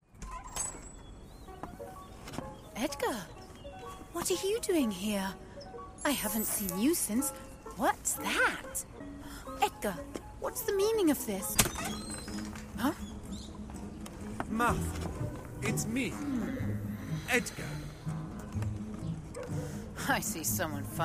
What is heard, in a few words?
voice artist animated fiction